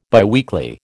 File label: "biweekly", American